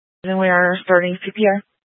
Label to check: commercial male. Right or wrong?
Wrong